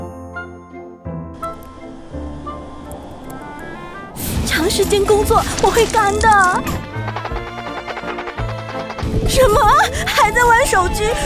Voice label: TVC; Rohto Cool Eye Drops

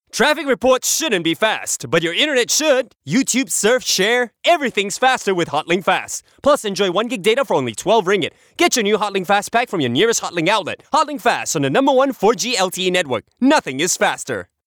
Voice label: ENG Cold VO

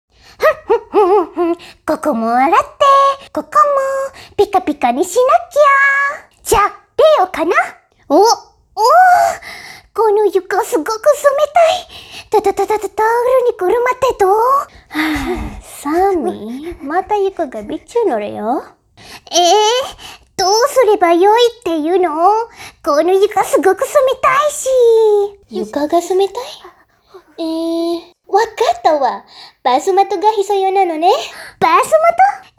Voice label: japanese Sample for japanese audition

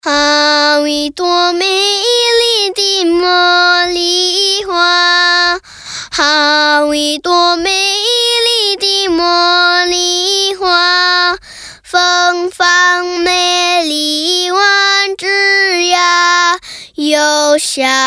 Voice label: MAND

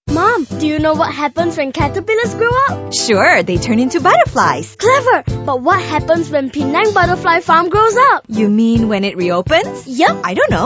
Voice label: ENG; NTOPIA SOFT OPENING

